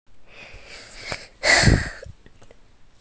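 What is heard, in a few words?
"le4", Mandarin Chinese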